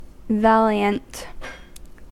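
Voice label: "valiant", US English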